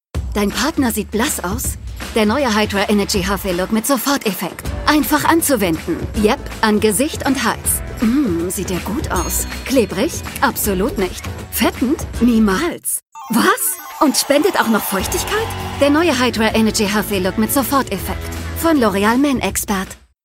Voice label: spot; loreal men expert

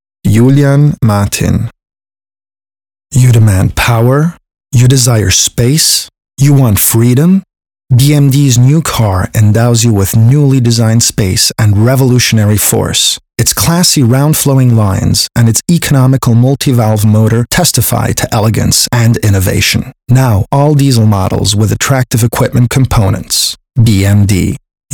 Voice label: en spot elegant

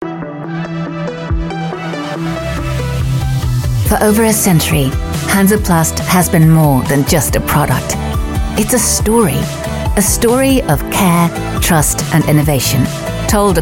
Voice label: corporate; hansaplast

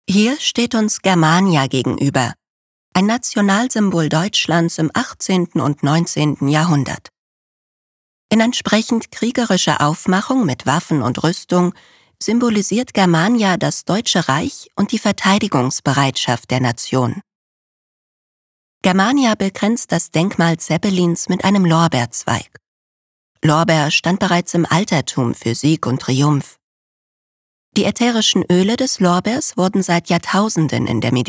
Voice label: audioguide; germania

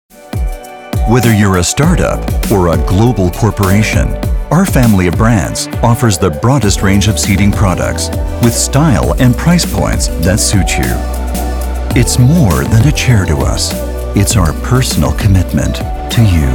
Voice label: imagefilm; steelcase

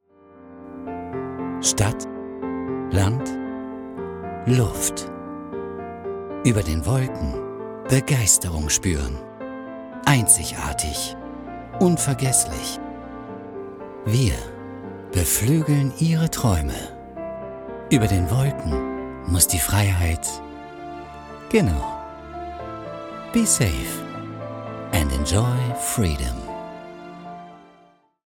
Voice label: commercial airline

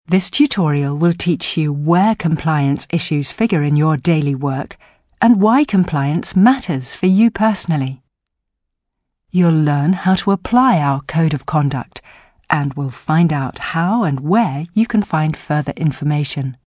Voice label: e-learning compliance; Muttersprache Englisch

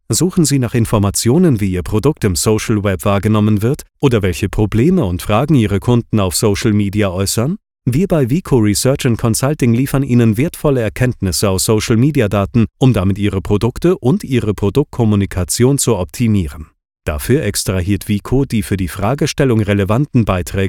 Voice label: corporate; vico

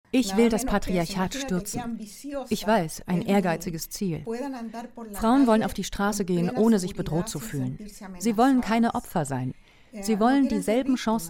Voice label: voice over; allende

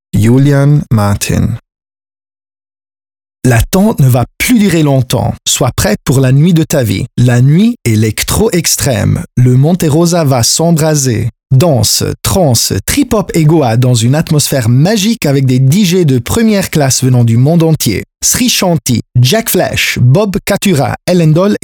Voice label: fr spot jung

